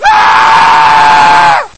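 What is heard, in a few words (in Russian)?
мужской голос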